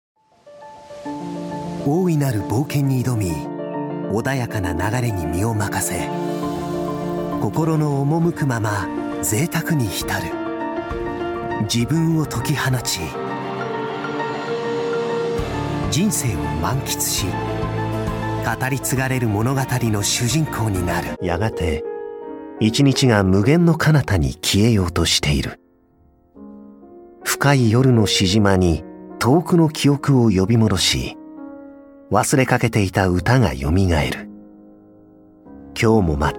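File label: Japanese, Narration; GoLocalise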